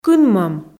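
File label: "кынмӧм", Komi, female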